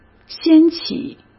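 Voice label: "掀起", Mandarin Chinese; chinese flashcards; hsk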